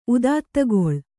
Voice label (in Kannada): "ಉದಾತ್ತಗೊಳ್", Kannada